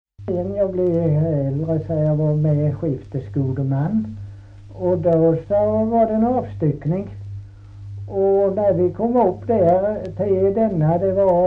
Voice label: Kristianopel BLEK, dialekter; Folkminnen

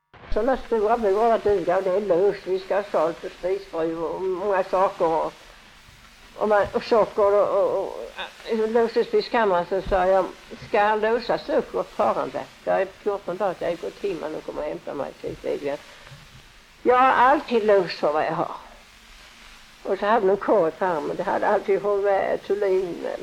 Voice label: Lunds stad SK, dialekter; Folkminnen

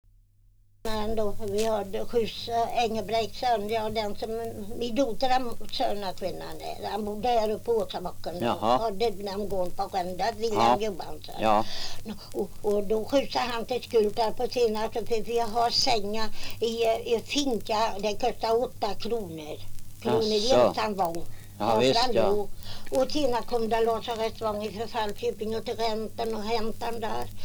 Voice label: dialekter; Folkminnen

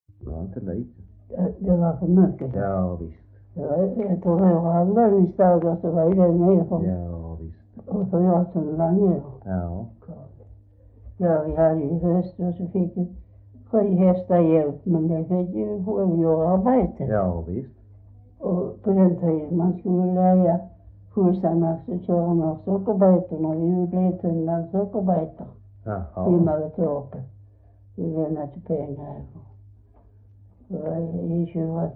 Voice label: S Svedala, dialekter; Folkminnen

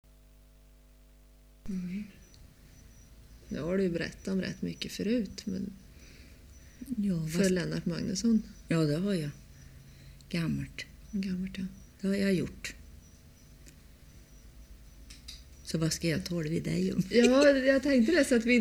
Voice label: Karlskoga, dialekter; Svenska sagor; Folkminnen

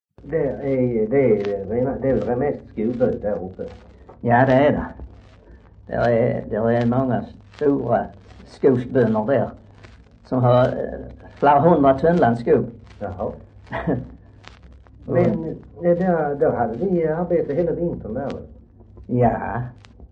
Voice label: Röke, dialekter; Folkminnen

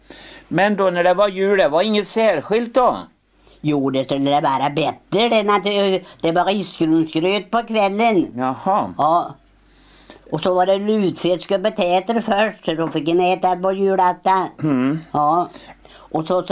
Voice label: Julkalender Floby, dialekter

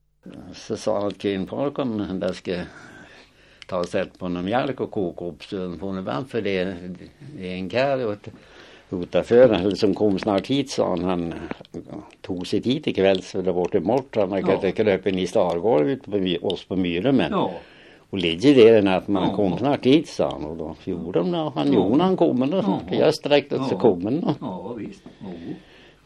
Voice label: Häggenås, dialekter; Svenska sagor; Folkminnen

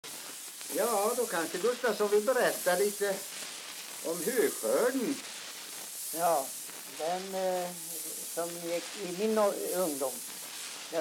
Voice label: dialekter; Folkminnen

